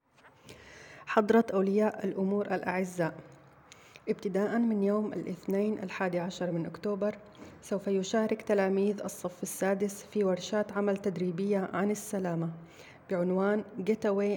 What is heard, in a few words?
arabic letter to parents carers; getaway n get safe